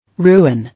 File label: "Ruin", English